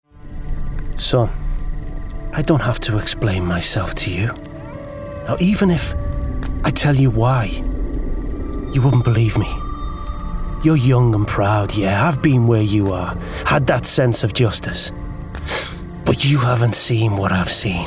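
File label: Gaming Everyman Natural Voice, male; Gaming Character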